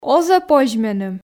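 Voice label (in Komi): оз-ӧ позь меным, Komi, female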